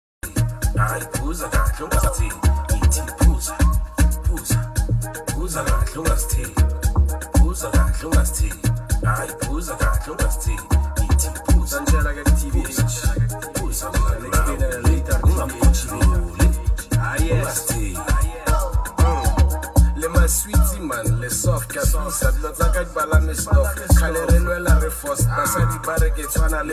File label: Amapiano Joint Verse